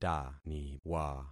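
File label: "taniwa", concatenated syllables; Affixing experiment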